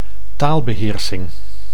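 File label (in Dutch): "taalbeheersing", Dutch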